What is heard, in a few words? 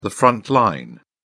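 "front line", British English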